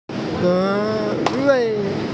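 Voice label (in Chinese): "ge2 wai4" Mandarin Chinese, female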